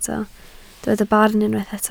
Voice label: "barn", Welsh